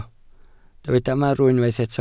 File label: "marw", welsh